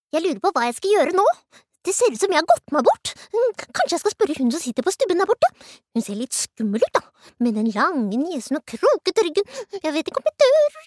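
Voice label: Norwegian dub character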